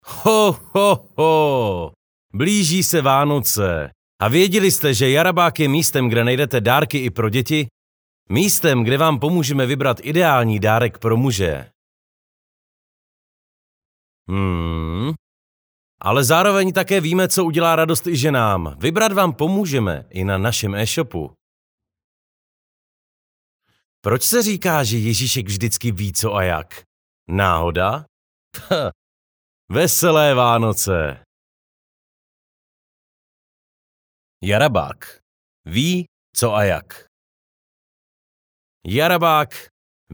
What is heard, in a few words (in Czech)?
Profesionální mužský hlas